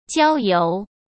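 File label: "郊游", Mandarin Chinese; chinese-flashcards; hsk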